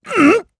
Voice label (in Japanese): Damage, jp; Lusikiel; kings raid